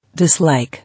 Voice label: "Dislike" English